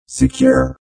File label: Robot Voice Secure; Lynne Music